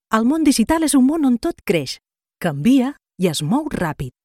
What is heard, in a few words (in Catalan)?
publi corporativa, locutora